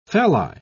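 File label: "phalli", English, British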